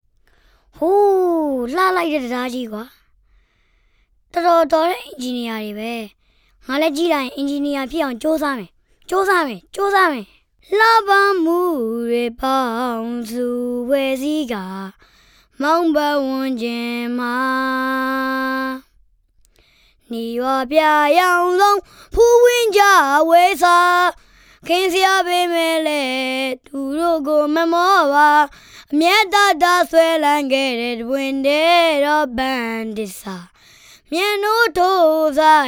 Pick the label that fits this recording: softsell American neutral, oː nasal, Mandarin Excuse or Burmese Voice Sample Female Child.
Burmese Voice Sample Female Child